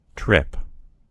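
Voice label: "trip", American English; gcompris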